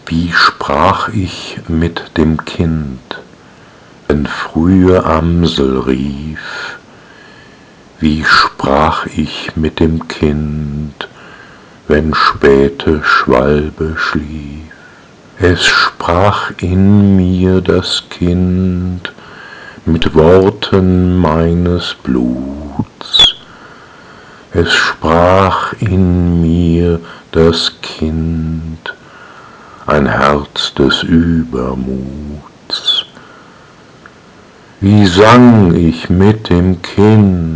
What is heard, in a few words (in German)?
Die weibliche Stimme